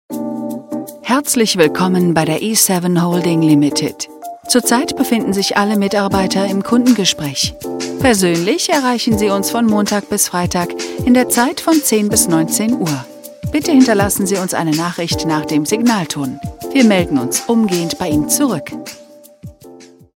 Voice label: nice deutsch

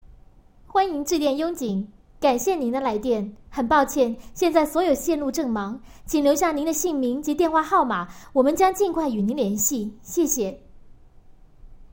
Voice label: mandarin chn

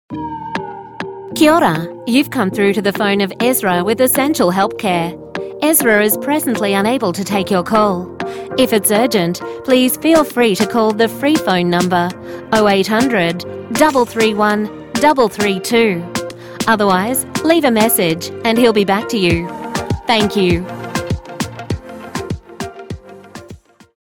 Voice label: mobile phone message; english

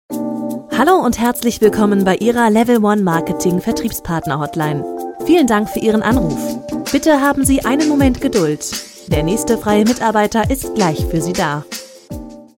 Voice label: deutsch

